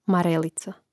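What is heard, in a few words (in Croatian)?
"marelica", Croatian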